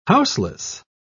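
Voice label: "houseless", British English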